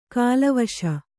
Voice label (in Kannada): "ಕಿಲ್ವಿಷ", Kannada